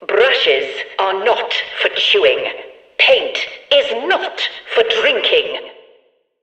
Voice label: Background Announcements

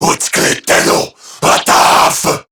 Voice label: Player Spotted, Male, Battle Barks; Warframe; CorruptedGrineerMale

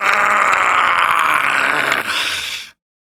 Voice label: Death, Male; Warframe; Railjack; Arbiters Hexis

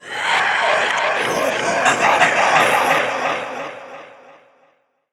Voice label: Stalker Assassin Whispering; Warframe

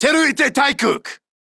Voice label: Player Spotted Corpus Male; en; Battle Barks

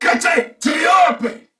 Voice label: Player Spotted, Corpus Venus Male; Dialog; Barks; Battle; Warframe; CorpusVenusMaleA

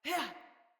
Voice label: Female, Battle Barks; Envoy

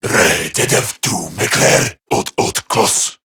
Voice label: Patrol Corrupted Grineer Male

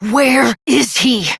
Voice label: Battle Adult; Warframe; Duviri Quest; Duviri Paradox Quest

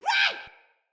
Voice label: Sprout Vocal Surprised; Friendly; Sproutfolk; Fey Hub Sprout

